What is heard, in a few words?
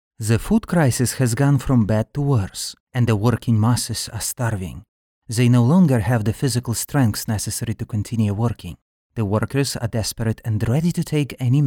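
Russian, male, narration, English accent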